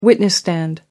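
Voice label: "witness stand", English, British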